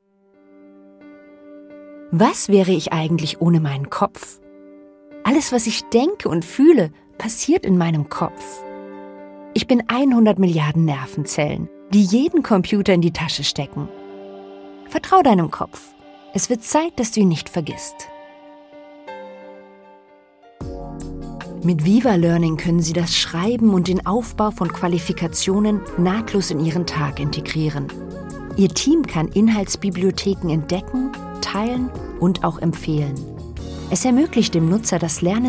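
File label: German Corporate; GoLocalise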